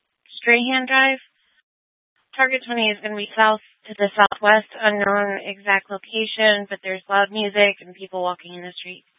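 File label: Tempe police department, scanner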